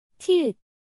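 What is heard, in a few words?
"ㅌ", Korean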